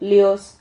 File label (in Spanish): "lios", Silabas